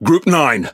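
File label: Pirate Speech Pilots Torpedo, English pirate; World Of Warships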